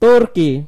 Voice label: "turkey", id; gcompris-data; geography